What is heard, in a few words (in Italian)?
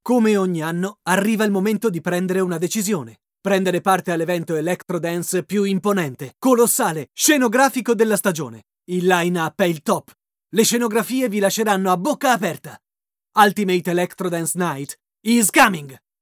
Spot Radio It, male, Italian; radio commercial